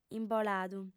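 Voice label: "imboladu", Sardinian, female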